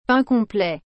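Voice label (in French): "pain complet", French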